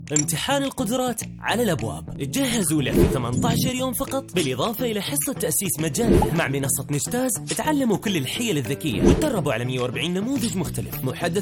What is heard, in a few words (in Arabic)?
تعليق صوتي موشن جرافيك سعودي